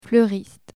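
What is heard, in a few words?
"fleuriste", French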